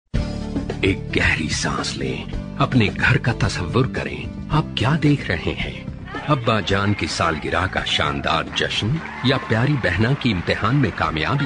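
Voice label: Urdu, male, commercial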